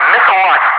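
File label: "missile launch", English, Wingman; DCS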